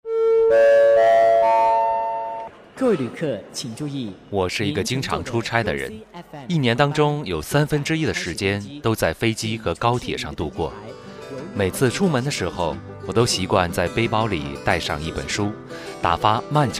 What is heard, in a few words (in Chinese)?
公益 阅读; 参评公益阅读让生活更美好